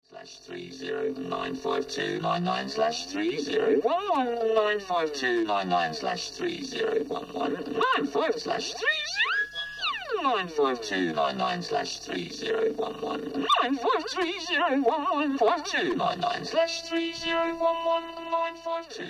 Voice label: Robot Vox; infiltrator